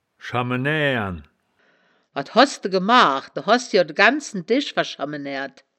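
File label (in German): "schamme naeaeren", wittlicher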